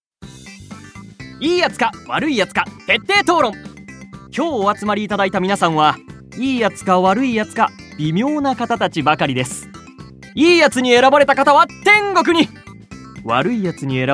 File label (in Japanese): ナレーション